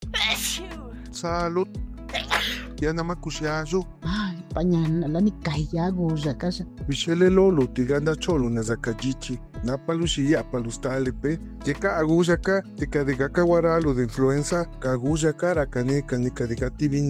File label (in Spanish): Spot Radio Zapoteco; mexico; salud inclusiva